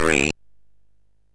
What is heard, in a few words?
MOS6581R3, Electronic Speech Systems